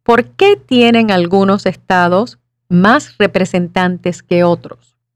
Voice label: Spanish